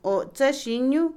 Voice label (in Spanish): Otomí, mujer; ventana de la nariz; Cuerpo; INALI